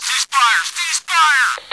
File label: radio hold fire